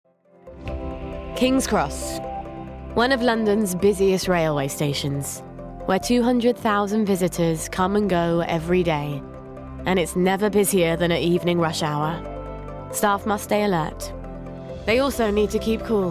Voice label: Narration

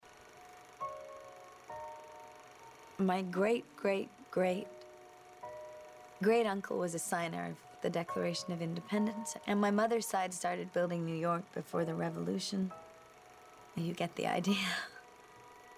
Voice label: American; Factory Girl

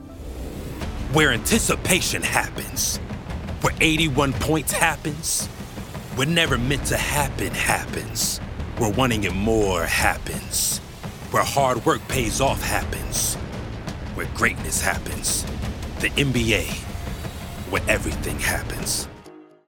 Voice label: Commercial; NBA

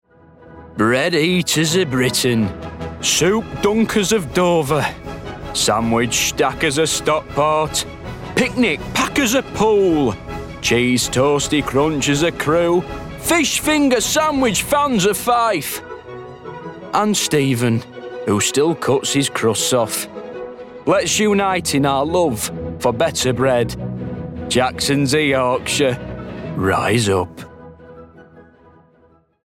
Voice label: commercial; Jacksons

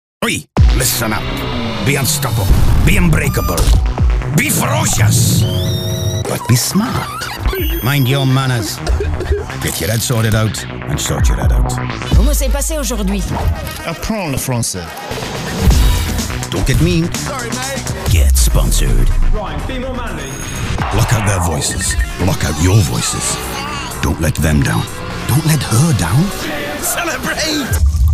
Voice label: Rugby Promo; Heineken Champions Cup

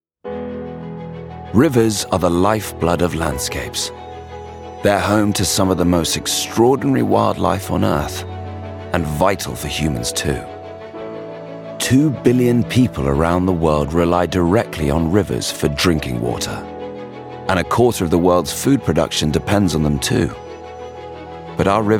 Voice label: Documentary; Our Changing Planet Restoring Our Rivers

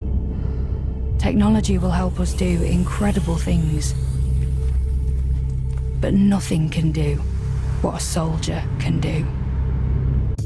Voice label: Army Recruitment Commercial

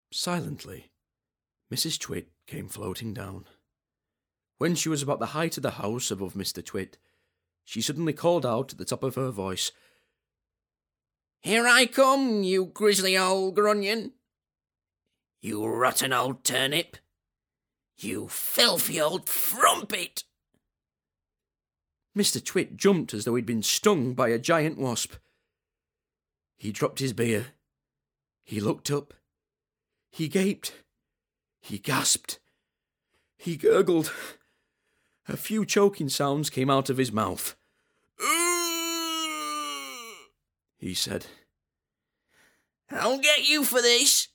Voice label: Audiobook; The Twits

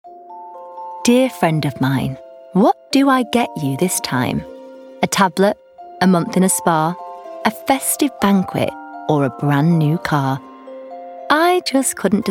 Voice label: Ad; National Lottery

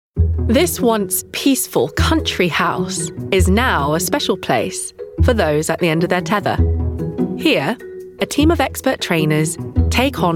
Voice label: documentary voice

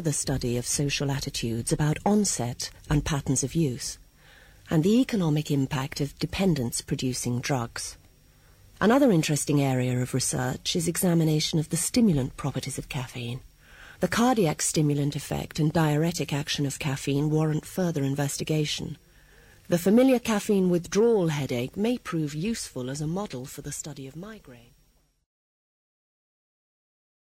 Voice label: medical narration

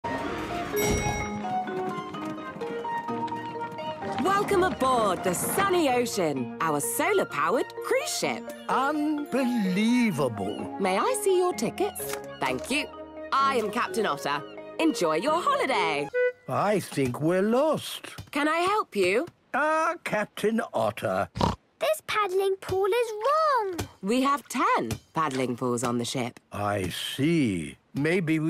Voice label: ANIMATION; PEPPA PIG

